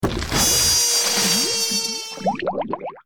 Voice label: Drowning Voice